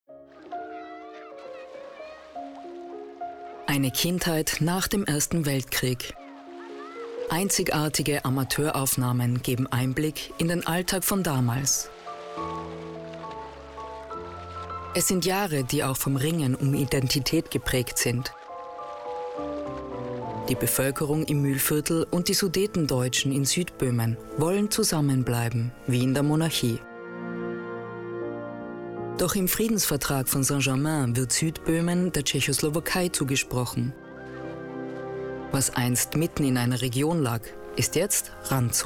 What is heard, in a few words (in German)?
Doku; R128